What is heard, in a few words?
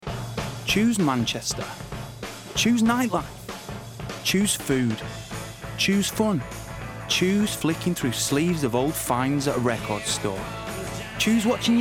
Commercial Montage